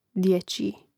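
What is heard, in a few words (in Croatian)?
"djeciji", Hrvatski; mreznik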